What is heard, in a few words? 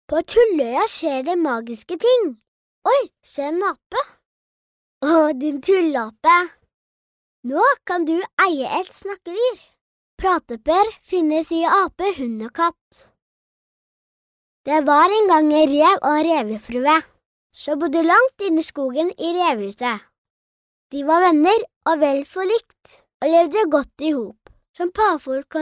norvegian girl